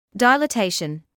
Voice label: "dilatation", English